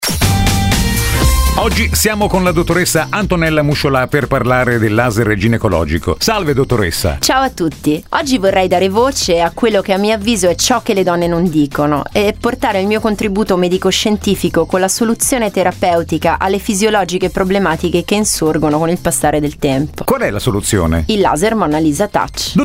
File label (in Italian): Intervista; RadioM100; Monnalisa Touch